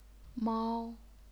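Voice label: "mao", first tone, chinese pinyin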